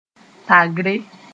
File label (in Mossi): "tagre", moore